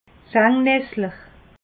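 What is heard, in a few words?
"sengessel", Alsatian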